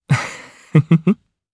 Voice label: Happy, jp; Siegfried; kingsraid